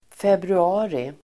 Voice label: "februari", Swedish

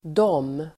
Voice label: "dom", Swedish

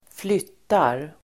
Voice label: "flyttar", Swedish

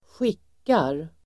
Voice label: "skickar", Swedish

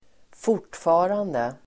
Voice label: "fortfarande", Swedish

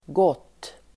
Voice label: "gott", Swedish

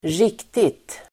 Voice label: "riktigt", Swedish

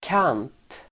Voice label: "kant", Swedish

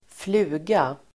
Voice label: "fluga", Swedish

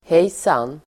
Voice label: "hejsan", Swedish

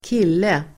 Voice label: "kille", Swedish

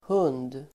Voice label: "hund", Swedish

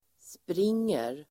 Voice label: "springer", Swedish

